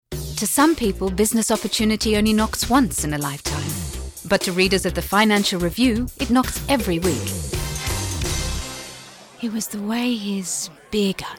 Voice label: VOICE OVER